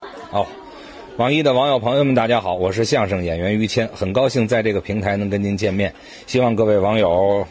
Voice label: interview